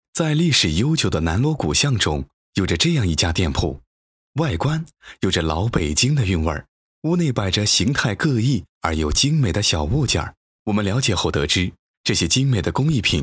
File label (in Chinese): 纪录片, 南锣鼓巷软陶, 舌尖感